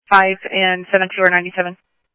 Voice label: Mesa Simulcast police; jumper